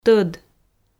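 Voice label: "тӧд", Komi, female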